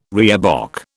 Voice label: "reebok", British